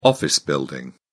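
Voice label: "office building", British English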